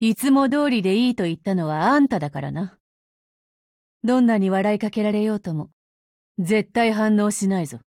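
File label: dialogue wedding, Japanese; 人物：少女前线:贝奥武夫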